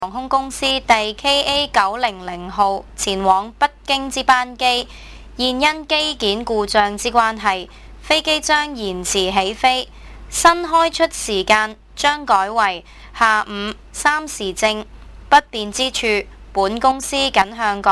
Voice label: Delay cantonese

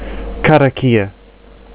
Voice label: karakia, maori

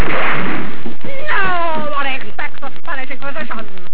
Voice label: spanish

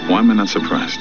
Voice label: surprised

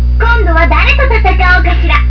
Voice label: kawaii; Pullum's Win Phrase #2

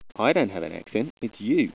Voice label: accent

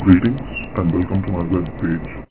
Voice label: pitch-0.75

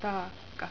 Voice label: "taakka", Finnish; 'burden'

